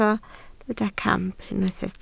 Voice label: "camp", Welsh